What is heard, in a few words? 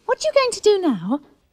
what you going to do now male; questions